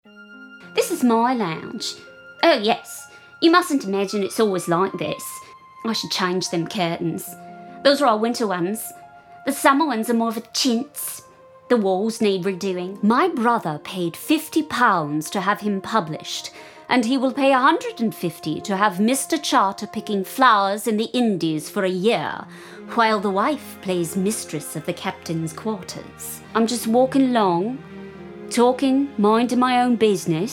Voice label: Dialect UK; Dialects (UK)